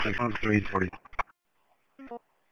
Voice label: Police Sheriff Dispatch